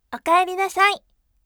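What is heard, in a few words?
voice "welcome home" Japanese, bright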